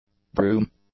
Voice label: "broom", English; nouns

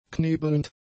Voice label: "knebelnd", German; adjectives

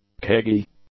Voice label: "cagy", English; adjectives

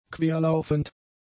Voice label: "querlaufend", German; adjectives

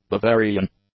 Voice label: "Bavarian", English; adjectives

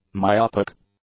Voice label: "myopic", English; adjectives

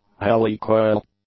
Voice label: "Heli-Coil", English; nouns

